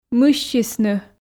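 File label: "мыссисны", Komi, female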